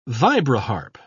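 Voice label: "vibraharp", British English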